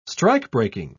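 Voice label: "strikebreaking", English word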